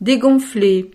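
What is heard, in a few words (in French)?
"degonfle", French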